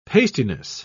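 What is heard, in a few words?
"pastiness", British English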